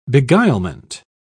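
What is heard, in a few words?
"beguilement", en, uk British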